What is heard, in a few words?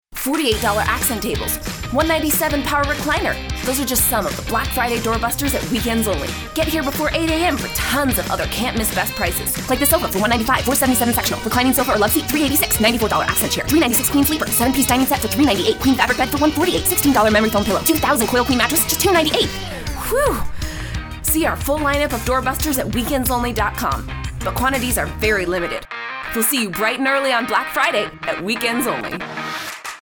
Radio